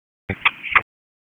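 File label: Public Safety Tactical, p25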